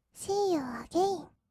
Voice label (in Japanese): しーゆーあげいん, kawaii voice; ASMR; 1200 kawaii voice!!; 音ゲー